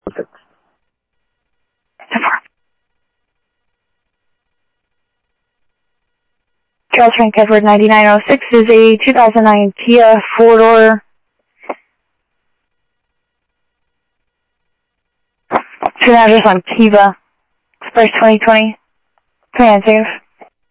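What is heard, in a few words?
Sheriff East County, scanner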